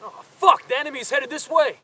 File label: spot; bf3